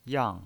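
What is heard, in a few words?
"yang" Mandarin Chinese pinyin voice, fourth tone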